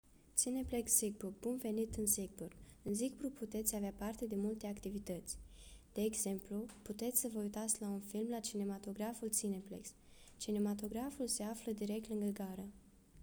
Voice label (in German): Rumanisch